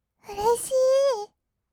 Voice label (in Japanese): "うれしいー", kawaii voice; ASMR; 色々なワンショット; 1200 kawaii voice!!